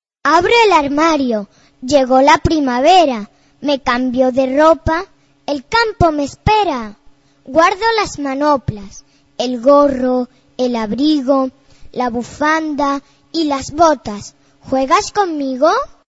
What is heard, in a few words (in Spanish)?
estaciones poesia primavera; fantasmin; poesias